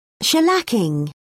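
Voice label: "shellacking", British English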